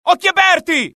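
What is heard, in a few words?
alert, Italian; mohaa; dialogue; sighted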